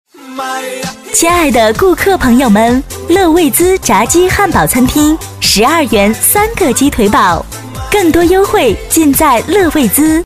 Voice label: 促销 餐厅 女 活泼; 乐味滋餐厅

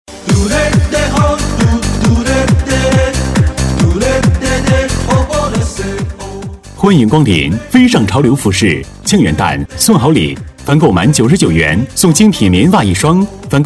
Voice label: 服装 男 激情; 促销; 菲尚朝流

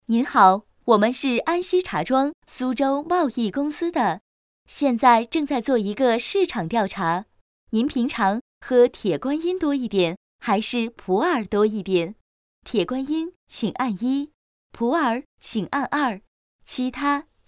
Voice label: 彩铃 自然讲述; 茶叶彩铃; 女

